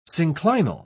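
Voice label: "synclinal", British English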